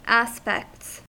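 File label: "aspects", US English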